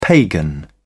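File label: "pagan", British English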